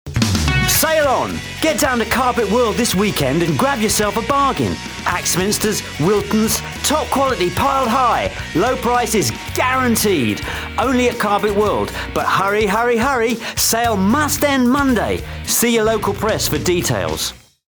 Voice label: british english male

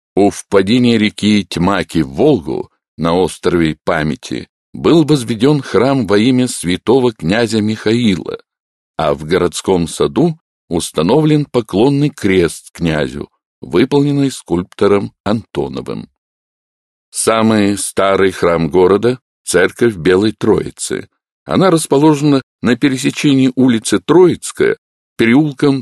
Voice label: russian male

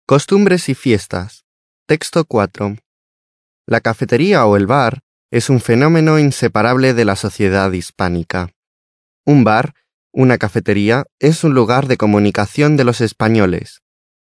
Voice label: spanish male

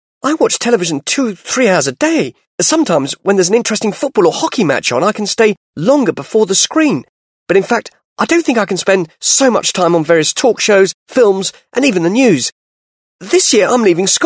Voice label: british english male

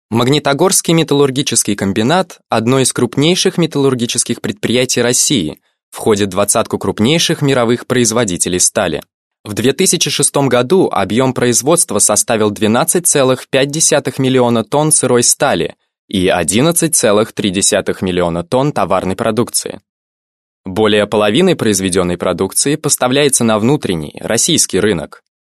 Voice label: russian male

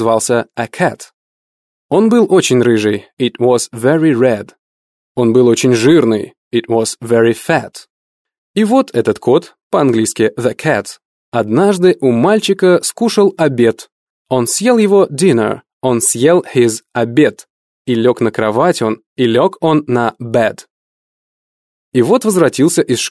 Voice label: russian english male

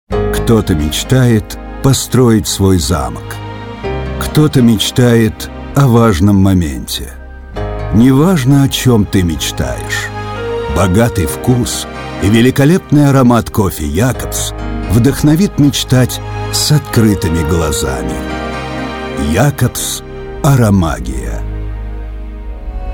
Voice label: rus

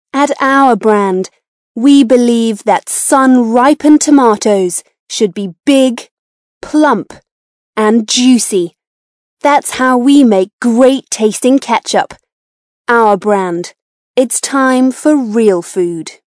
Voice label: british english female

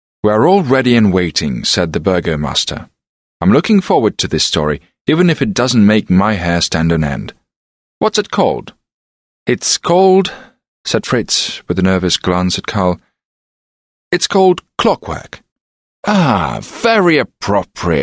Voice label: british english male

